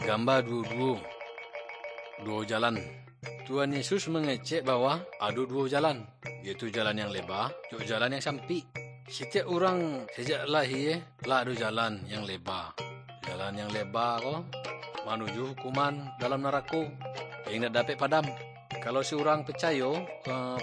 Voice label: good news minangkabau muko muko; kaba ilo

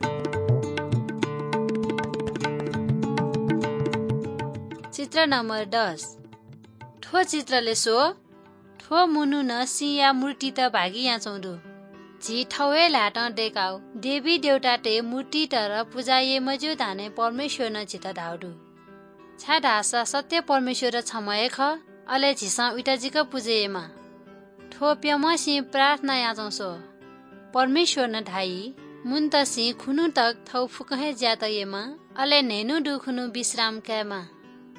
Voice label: good news newari balami

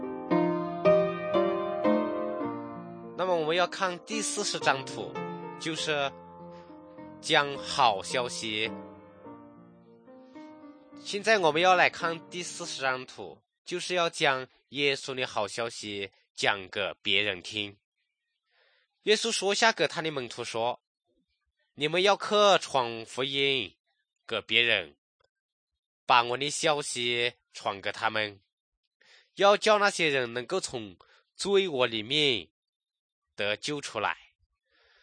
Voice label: good news shan, drama; shan thailand; kokant shan; bible society nt drama

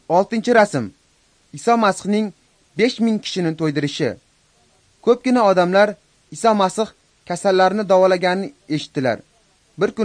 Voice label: uzbek northern

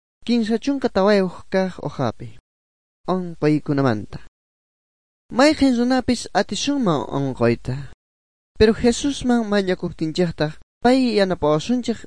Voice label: good news quechua bolivian sucre; bible

